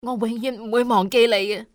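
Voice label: "thank", female; XBOX; civ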